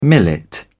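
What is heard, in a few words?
"millet", British English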